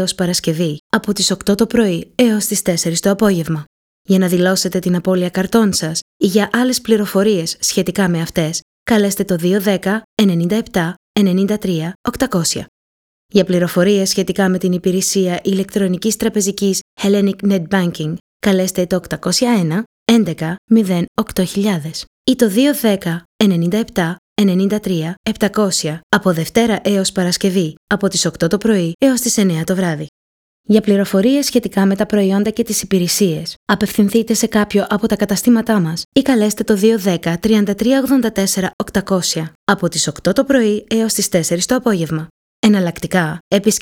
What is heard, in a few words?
Greek English Messaging